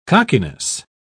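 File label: "cockiness", British English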